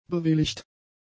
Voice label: "bewilligt", German; adjectives

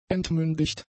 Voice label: "entmündigt", German; adjectives

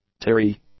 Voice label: "teary", English; adjectives

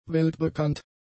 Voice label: "weltbekannt", German; adjectives

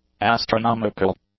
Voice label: "astronomical", English; adjectives

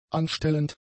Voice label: "anstellend", German; adjectives

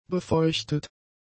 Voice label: "befeuchtet", German; adjectives

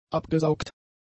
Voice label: "abgesaugt", German; adjectives

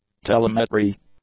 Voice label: "telemetry", english

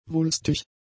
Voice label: "wulstig", German; adjectives

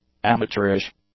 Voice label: "amateurish", English; adjectives

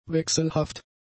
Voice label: "wechselhaft", German; adjectives

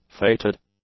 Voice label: "fated", english; adjectives

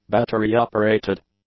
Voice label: "battery-operated", English; adjectives

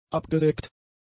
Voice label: "abgesägt", German; adjectives

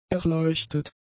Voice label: "erleuchtet", German; adjectives

